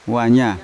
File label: "wanya" woman, Yawa